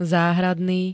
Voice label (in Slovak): "záhradný", Slovenské